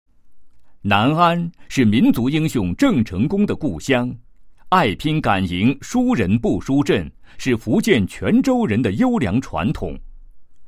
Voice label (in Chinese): 男 企业专题; 中国人寿南安支公司简介